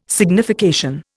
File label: "signification", Indian